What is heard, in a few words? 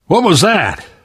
uncertain, survivor; voice